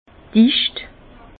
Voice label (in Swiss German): "dicht", Bas Rhin; vin; opaque